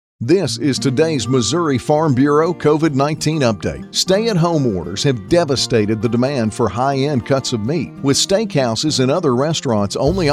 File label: Cattle commercial